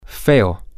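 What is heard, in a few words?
bad food, Spanish, male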